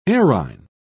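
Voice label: "errhine", British English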